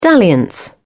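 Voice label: "dalliance", British English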